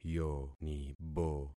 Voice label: "yonibo", concat syllables; Affixing experiment